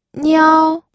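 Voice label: "niao", first tone, pinyin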